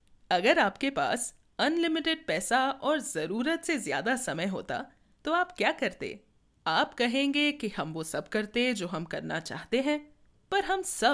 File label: Hindi Podcast Youtube